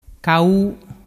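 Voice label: "cau", Vernazzese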